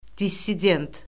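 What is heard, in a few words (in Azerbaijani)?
"dissident", Azerbaijani; Orfoqrafiya Lüğəti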